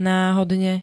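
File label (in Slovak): "náhodne", Slovak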